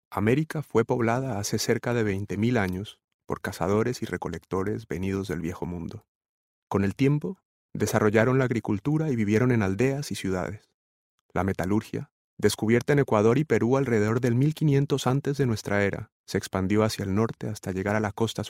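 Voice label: Latin American Spanish general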